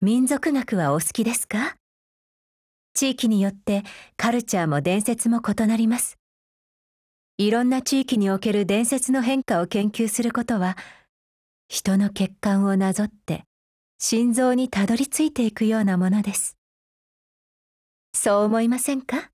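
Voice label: DIALOGUE, Japanese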